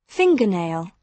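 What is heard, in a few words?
"fingernail", British English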